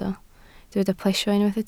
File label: "plesio", Welsh